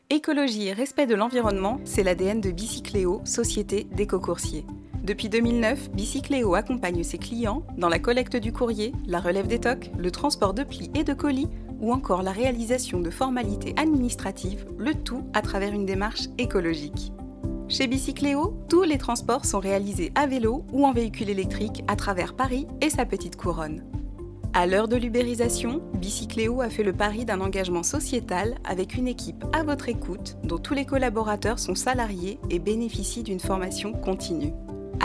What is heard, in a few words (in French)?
voix off femme fr